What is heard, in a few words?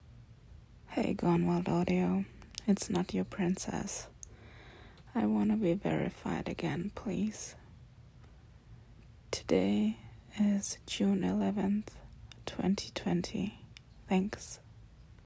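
Female Verification Request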